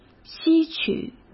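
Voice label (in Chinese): "吸取", Mandarin Chinese; hsk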